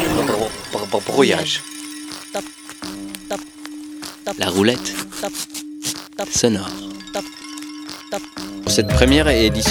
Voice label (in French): Voix Jingle Radio FR